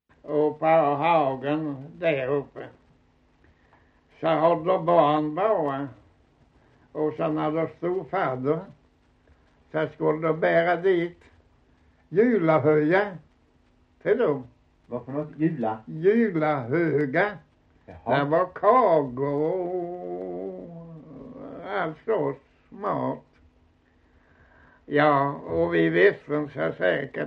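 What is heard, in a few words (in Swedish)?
Osby Skåne, svenska sagor dialekter; Folkminnen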